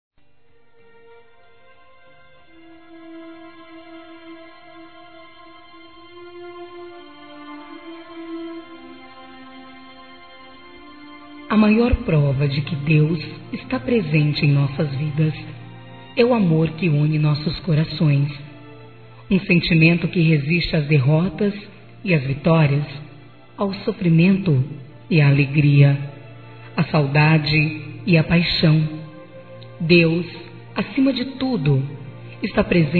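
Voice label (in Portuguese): EVANGELICO, female, Dia Dos namorados; Telemensagens 2017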